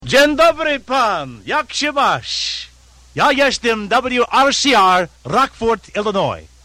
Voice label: Polish ID; WRCR